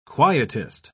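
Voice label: "quietist", British English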